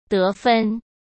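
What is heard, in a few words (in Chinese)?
"得分" Mandarin Chinese; chinese-flashcards; hsk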